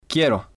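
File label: "quiero", Spanish, male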